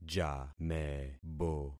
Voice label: "jamebo", concat syllables; Affixing experiment

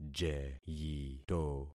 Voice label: "jeyito", concat syllables; Affixing experiment

